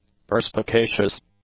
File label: "perspicacious", english; adjectives